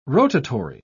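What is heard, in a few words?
"rotatory", British English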